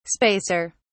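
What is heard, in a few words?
"spacer", English, British English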